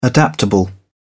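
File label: "adaptable", English, British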